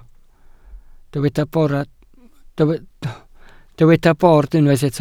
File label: "bord", Welsh